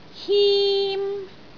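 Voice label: "him1", Cantonese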